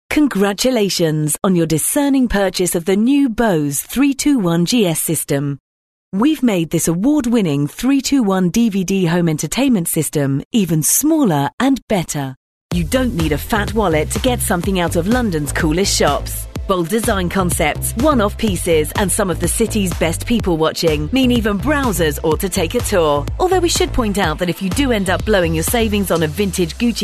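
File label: English, female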